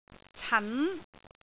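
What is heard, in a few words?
"can2", Cantonese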